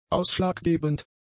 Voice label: "ausschlaggebend", German; adjectives